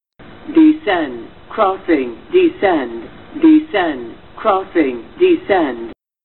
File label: Female Descend Crossing Descend